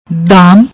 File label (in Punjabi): "damm", Punjabi; ਦਾਮ; دام; noun, masculine; price, cost, value; cash, money; net, snare; Gurmukhi; Shahmukhi